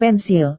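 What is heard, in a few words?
"pensil", Indonesian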